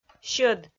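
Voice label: "сьӧд", Komi, female; kpv audio dict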